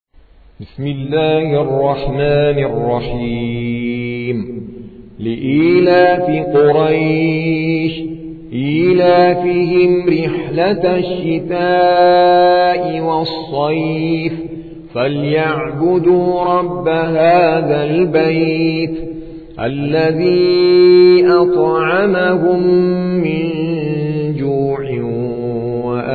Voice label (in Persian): ترتیل قاری هندی سوره قریش